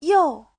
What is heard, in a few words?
"you4", Chinese